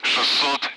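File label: Speech, Russian, Numbers, 60; Allied Flight; DCS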